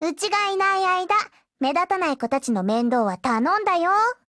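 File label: OPERATION BEGIN, Japanese, Voice; Liberator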